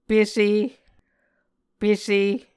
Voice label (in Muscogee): "pese", Muscogee